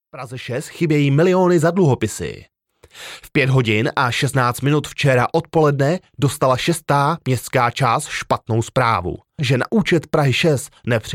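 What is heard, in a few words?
Czech, General; GoLocalise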